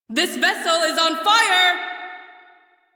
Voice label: Crew See Fire, Female; Railjack Crew; Cephalon Suda